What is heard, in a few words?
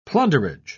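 "plunderage", British English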